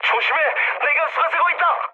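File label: Korean damaged water mood med, speech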